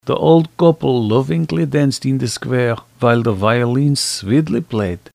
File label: yiddish